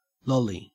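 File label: "lolly", Australian English; pronunciations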